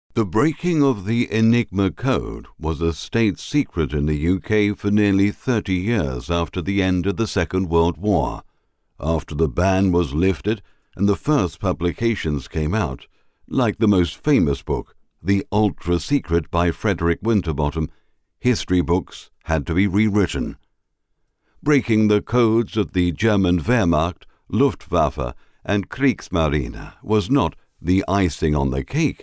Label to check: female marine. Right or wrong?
Wrong